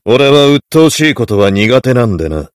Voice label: heavy good job